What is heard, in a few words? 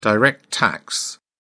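"direct tax", British English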